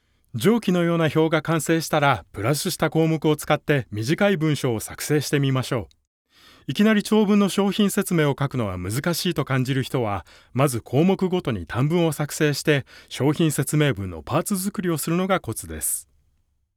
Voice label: japanese e-learning

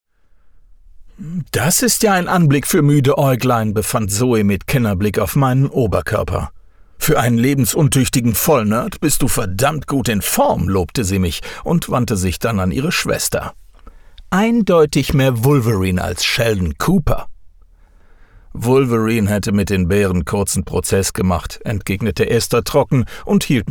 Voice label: german audiobooks

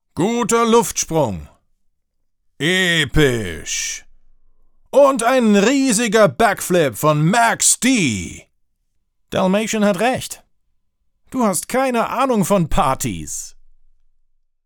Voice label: german announcements; actor de doblaje profesional

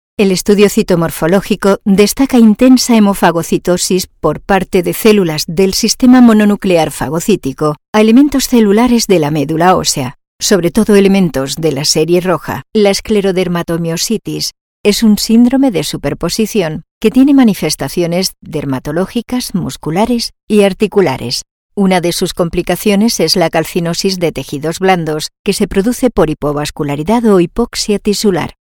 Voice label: spanish medical narration

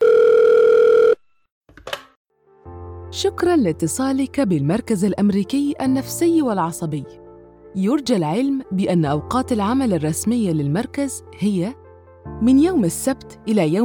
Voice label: arabic ivr

